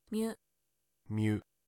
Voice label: "myu", Japanese